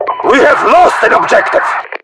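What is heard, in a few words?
Russian lost obj, voiceovers; english; mpvoice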